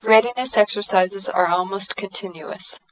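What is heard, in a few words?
female dereverberated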